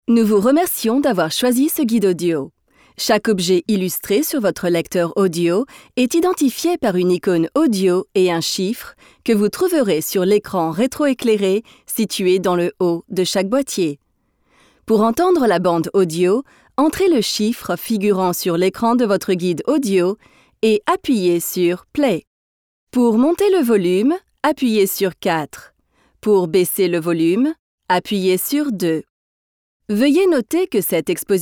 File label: French; King Tut Tour